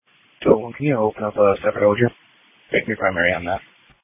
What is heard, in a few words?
Police Dispatch